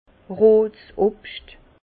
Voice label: "rot", Bas Rhin; fruits rouges; vin

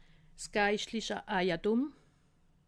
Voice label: "geischtliche", alsace

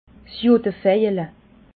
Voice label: "judevejele", alsace

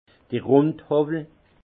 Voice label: "rundhowwel", alsace; vin; rabot rond

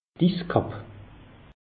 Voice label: "is kapp", alsace; meteo

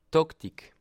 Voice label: "taktik", alsace

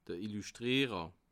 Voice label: "illuschtrierer", alsace

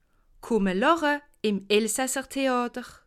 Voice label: "lache", alsace

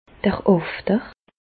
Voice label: "after", Bas Rhin; medecine; anus

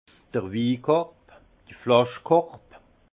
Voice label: "winkorb", alsace; vin; panier à vin

